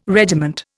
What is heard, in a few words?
"regiment", Indian